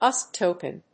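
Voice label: "USB TOKEN", English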